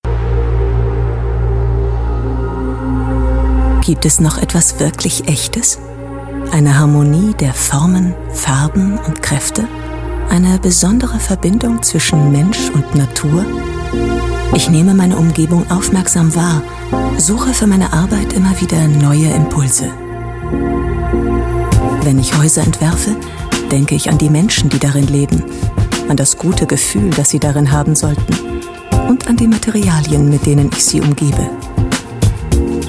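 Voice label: female, German, commercial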